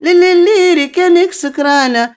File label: OLD WOMAN, Arabian Traditions